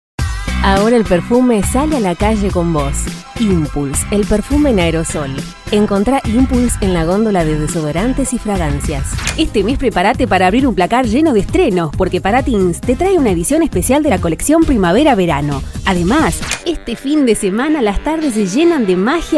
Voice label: Versatil Jovial Vendedora

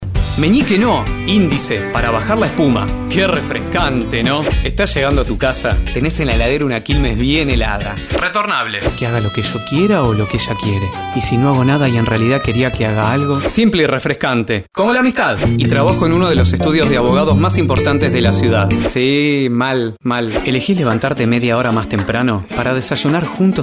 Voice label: Medios Ritmo Vendedor

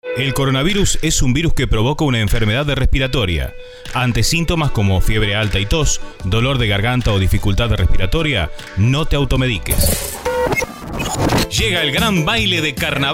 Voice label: Medios Institucional Dinamica, voces masculinas

